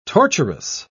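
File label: "torturous", English, British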